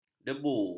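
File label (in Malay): "debu", Malay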